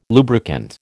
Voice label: "lubricant", American